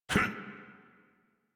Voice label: Adult Operator Jump, en; Warframe; New War